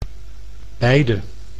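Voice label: "beide", Dutch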